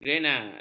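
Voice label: "grenad", Malay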